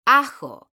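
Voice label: "ajo", Spanish, female; mekanta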